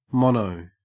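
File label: "mono", Australian English; pronunciations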